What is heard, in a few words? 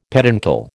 "peduncle", American